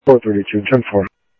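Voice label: scanner audio trunk, South Scottsdale, Sheriff East County; MCSO - EAST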